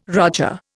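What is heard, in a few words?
"roger", Indian English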